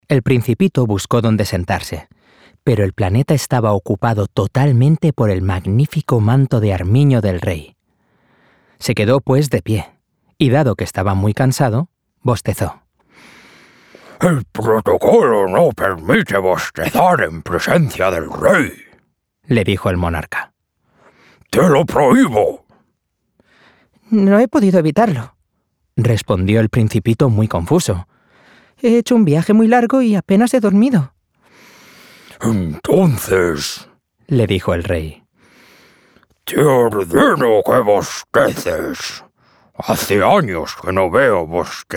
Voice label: Audiobook, Conversational, Bright, Upbeat, Spanish; Audiobook Reel